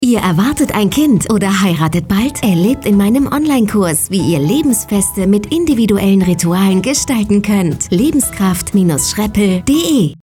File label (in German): Funkspot; Lebenskraft